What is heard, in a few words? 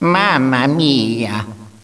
"mama mia", echo inside caves, underground; DS; ML BOWSERS INSIDE; Luigi